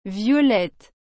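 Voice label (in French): "violette", French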